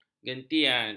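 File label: "gentian", Malay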